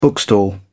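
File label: "bookstall", British English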